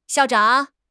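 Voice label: "xiao4 zhang3", Chinese; HSK3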